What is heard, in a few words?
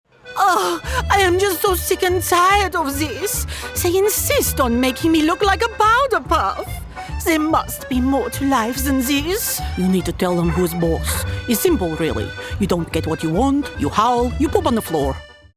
ANIMATION French Poodle and Russian Husky